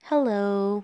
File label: Female HELLO